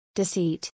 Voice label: "deceit", English